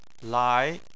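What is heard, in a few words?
"lai", Chinese, second tone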